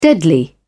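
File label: "deadly", British English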